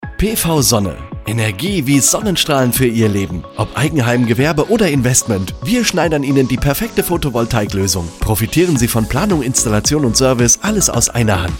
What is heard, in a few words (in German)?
Radio Werbung; AntenneBayern; PV-Sonne